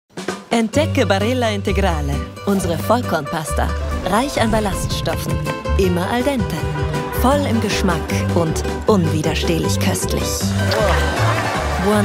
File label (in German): Werbung TV; Barilla Integrale